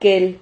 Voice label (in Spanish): "kel", Silabas